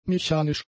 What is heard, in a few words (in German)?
"mechanisch", German; adjectives